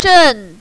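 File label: "zhen" fourth tone, mandarin, female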